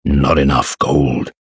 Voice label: Insufficient Funds, en US; Diablo III; озвучка некроманта